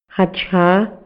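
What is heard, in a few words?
Maya, male; INALI; MayaYuc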